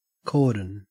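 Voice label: "cordon", Australian English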